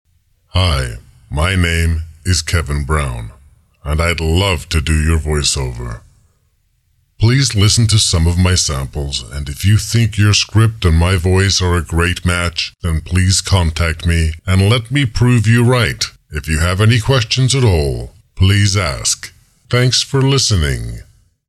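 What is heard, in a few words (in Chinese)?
外籍男 movie trailer; 美语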